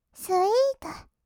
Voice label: "スイート", kawaii voice; ASMR; 色々なワンショット

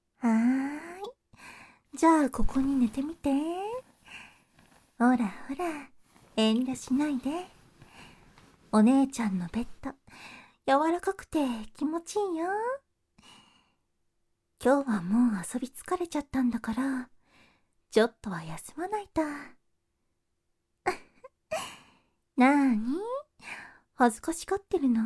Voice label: 環境音なし

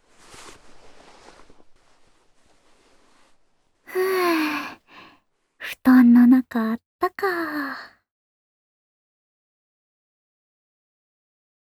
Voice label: ささやきラブラブボイス

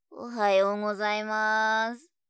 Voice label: "おはようございます"(哀)

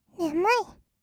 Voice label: "ねむい", kawaii voice; ASMR; 色々なワンショット

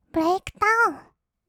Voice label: "ブレイクダウン", kawaii voice; ASMR; 1200 kawaii voice!!; 音ゲー

